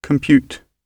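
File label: "compute", British English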